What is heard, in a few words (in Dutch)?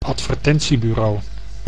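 "advertentiebureau", Dutch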